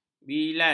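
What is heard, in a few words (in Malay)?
"bilal", Malay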